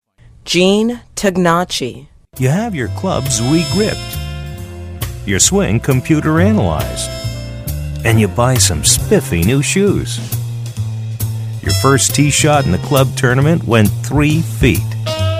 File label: commercial